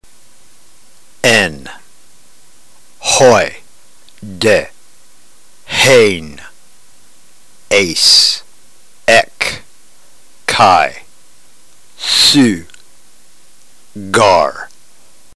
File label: drill, ntgreek